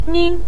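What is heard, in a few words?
"min", Mandarin Chinese neutral tone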